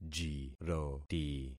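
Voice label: "jiroti", concatenated syllables; Affixing experiment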